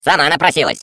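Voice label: Defective Turret glados battle defect arrive, Russian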